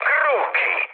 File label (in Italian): enemy detected, mood med, speech it; War Thunder